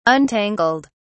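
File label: "untangled", British English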